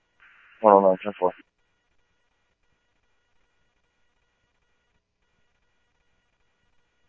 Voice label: Scottsdale PD Scottsdale Police, scanner audio

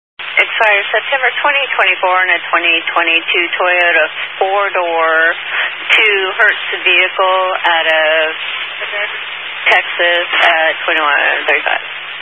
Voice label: Highway Patrol, Phoenix Metro West Area, scanner

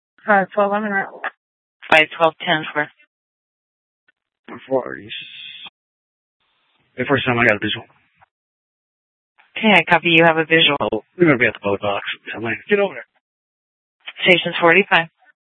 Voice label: Sheriff, scanner P25

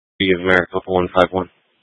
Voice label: Phx PD Fire Dispatch; RWC

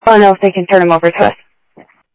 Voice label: Sheriff-WestCnty, scanner audio

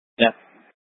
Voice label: Light Rail Emergency, scanner; Channel 6; Simulcast F- Tempe

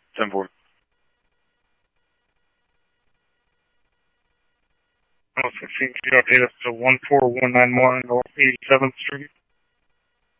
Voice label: Police, scanner; H-Scottsdale; SCTSDLE PD - PD2; ScottsdalePolice

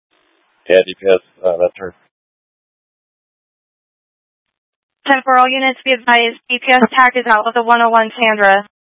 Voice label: Announce, scanner; AMBER Alert; Announce they found her

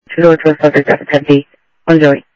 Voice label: scanner audio; Thompson Peak; DPS CI-Ctrl

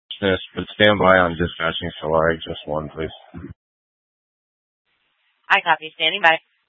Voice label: Fire Departments Mesa Simulcast; TOPAZ

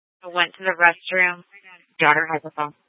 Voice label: Tempe PD, scanner; Rgnl Wireless Co-op (RWC)

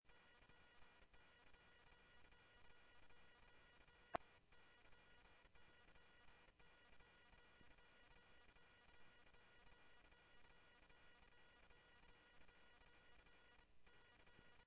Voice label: Police, scanner

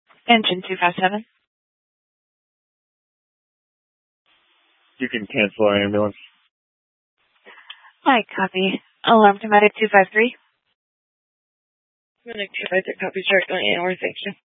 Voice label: Fire Departments, scanner

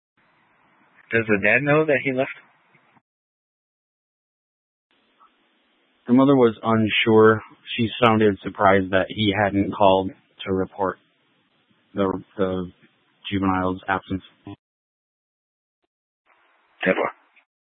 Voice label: Police Dispatch

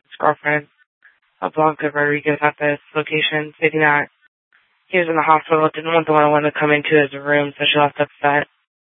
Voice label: Sheriff, scanner

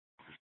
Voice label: Dispatch, scanner; Mesa PD Dpt; 4 Sprstn Dispatch

